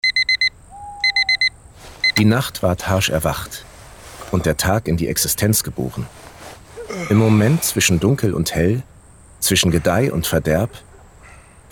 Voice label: Spot; Trope Verlag

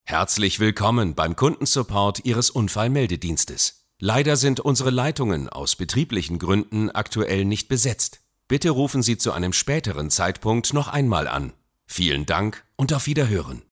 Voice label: Telefonansage Warteschleife

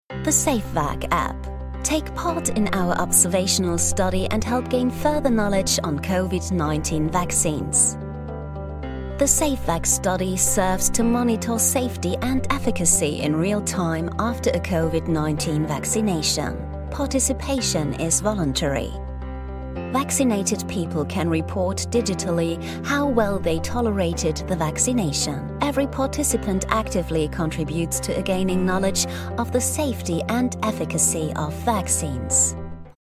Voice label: Erklaerfilm englisch; SafeVac

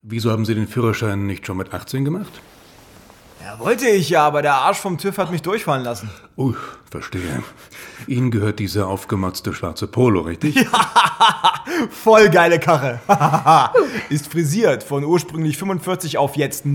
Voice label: Hörspiel, Audio Drama, German; Hörspiel Krimi 2; voice

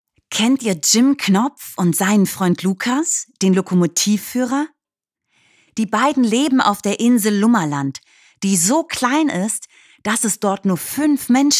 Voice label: Hörbuch; Jim Knopf

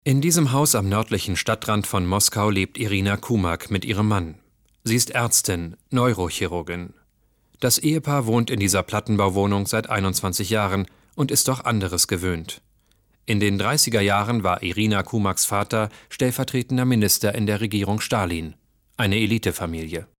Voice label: kommentar

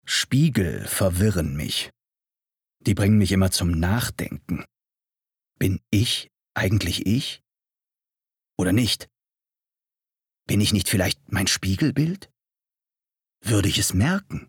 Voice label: Innerer Monolog

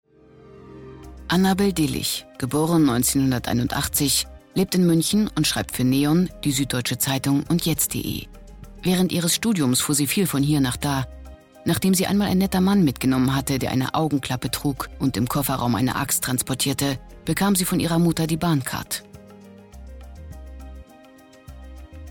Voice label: trailer

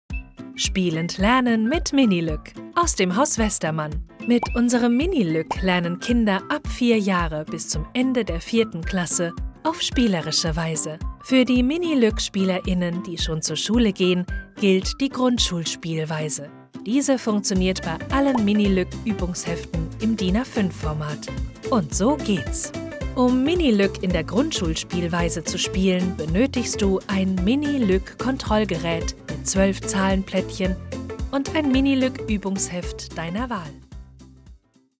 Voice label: Erklaerfilm; Westermann Verlag

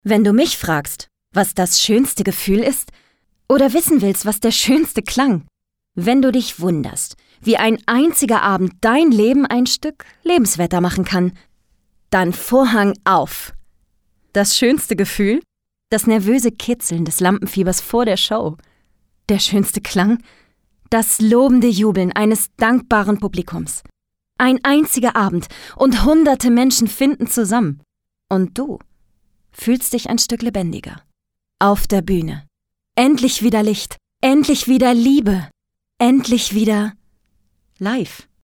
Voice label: Werbung Theater, Commercial, German

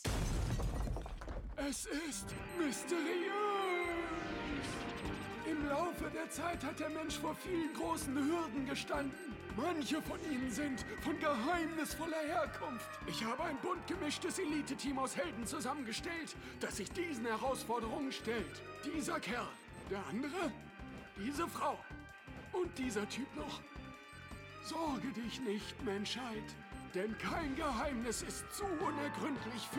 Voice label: alt komisch; Teen Titans GO

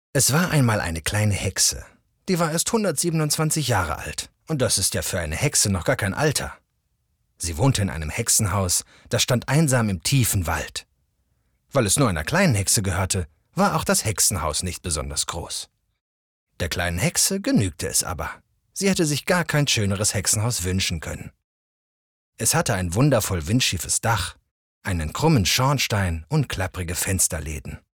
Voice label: Hoerbuch

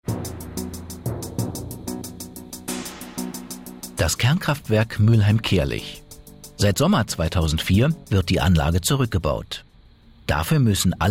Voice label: dokumentation strahlenschutz

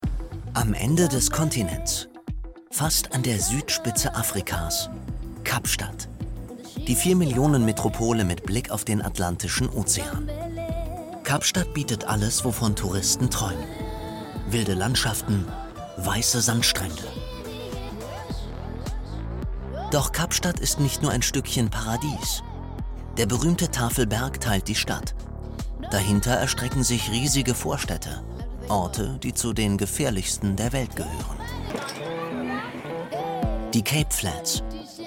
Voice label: Doku, Kommentar, German; Doku Kommentar Kapstadt; voice; ZDF info

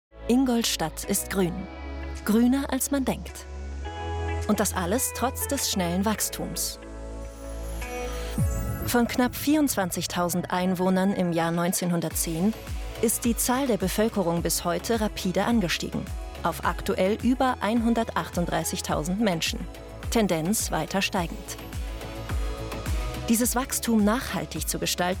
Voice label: Imagefilm Stadtplanung; Ingolstadt

